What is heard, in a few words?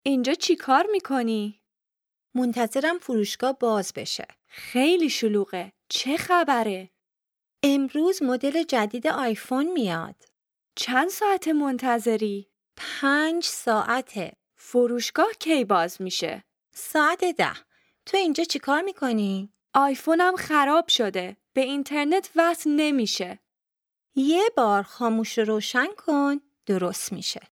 Dialogue lesson Farsi